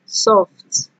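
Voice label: "soft", Nigerian Pidgin, female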